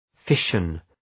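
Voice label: "fission", English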